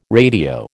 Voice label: "radio", American